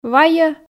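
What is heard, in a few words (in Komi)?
"вайӧ", Komi, female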